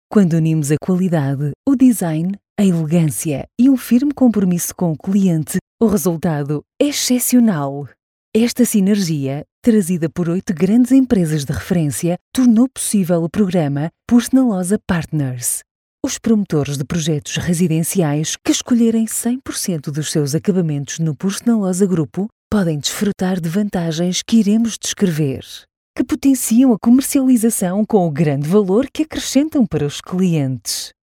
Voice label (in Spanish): Femenino